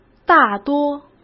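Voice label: "大多", Mandarin Chinese; hsk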